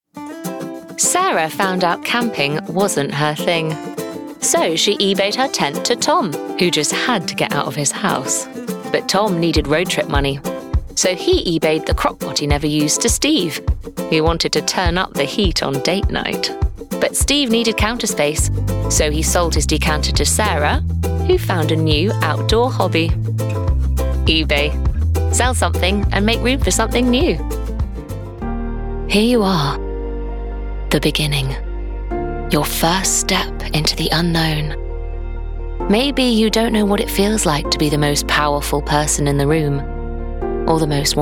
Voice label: commercial reel